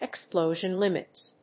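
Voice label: "explosion limits", English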